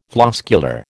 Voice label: "floscular", American